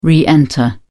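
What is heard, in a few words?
"re-enter", British English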